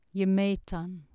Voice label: "gemetan", Anglo Saxon

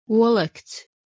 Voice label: "olekts", Latvian

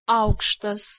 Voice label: "aukStas", Lithuanian

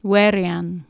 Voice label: "werian", Anglo Saxon

